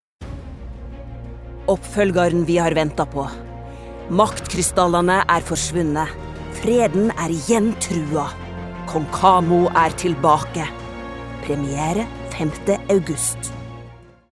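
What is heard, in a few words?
Norwegian movietrailer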